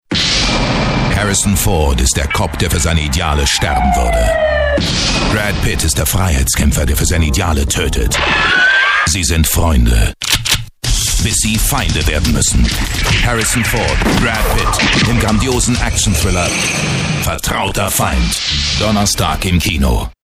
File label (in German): Kinotrailer; sprecher werbung; sky du mont